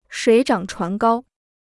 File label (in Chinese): "水涨船高", Chinese